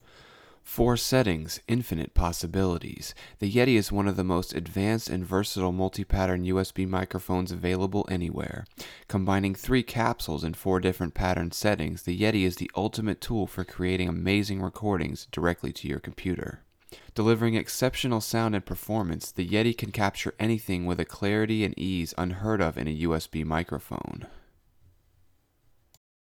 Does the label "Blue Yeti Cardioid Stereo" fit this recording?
Yes